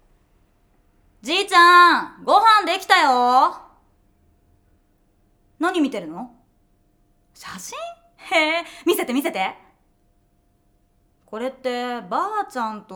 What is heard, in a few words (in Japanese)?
セリフ 少年